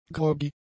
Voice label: "groggy", German; adjectives